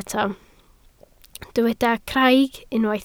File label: "craig", Welsh